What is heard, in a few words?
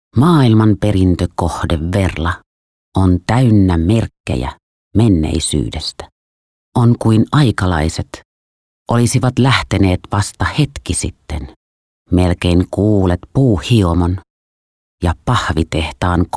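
Finnish, female, corporate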